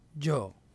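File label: "dzho", syllable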